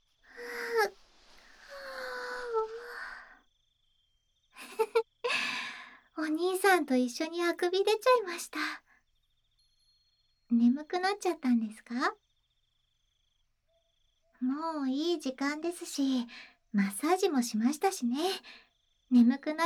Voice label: 添い寝ASMR; 二人きりの温泉旅行の一夜

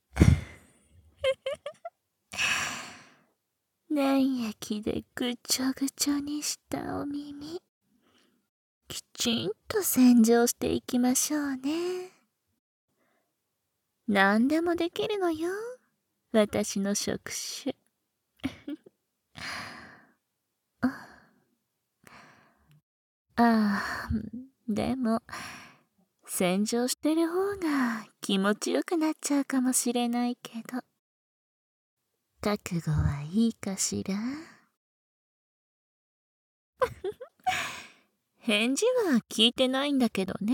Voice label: 耳触手洗浄, ASMR; 簡易モノラル編集 効果音なし

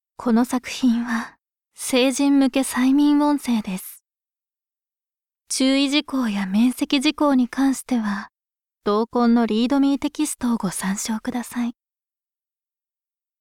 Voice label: ナレーション

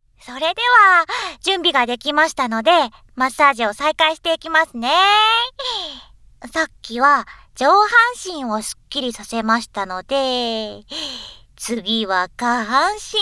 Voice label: マッサージ音なし ノイズ除去なし

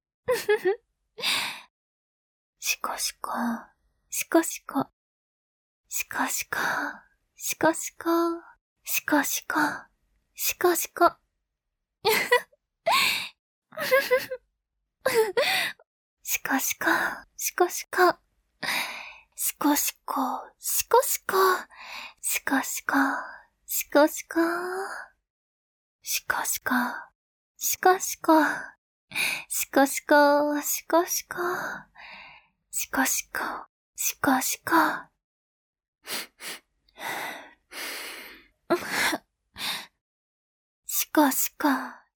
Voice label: 自慰支援(遅):しこしこの掛け声で自慰を促す; 実用ボイス抜粋集

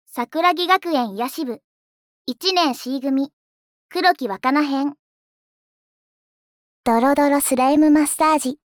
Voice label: タイトルコール, ASMR

